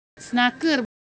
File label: Semai, ideophones; Texture